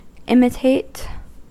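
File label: "imitate", American English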